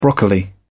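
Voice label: "broccoli", British English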